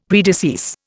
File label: "predecease", Indian; Dictionary